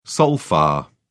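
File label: "sol fa", English, British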